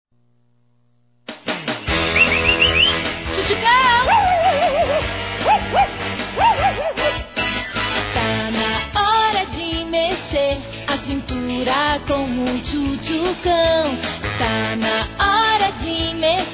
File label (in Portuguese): Aniversário Irmã Masculino; Telemensagens 2017; Aniversários; Aniversário Infantil